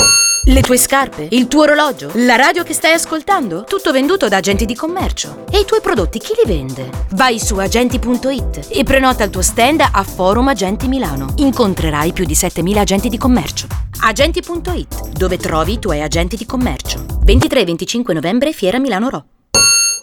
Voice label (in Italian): spot orologio radio